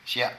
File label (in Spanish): Nariz, Zapoteco de Petapa; Cuerpo; Vocabulary